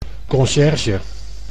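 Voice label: "congierge", Dutch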